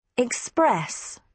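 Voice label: "express", British English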